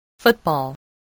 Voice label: "football", English voice, spelling